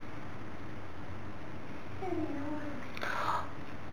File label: little girl's voice; EVP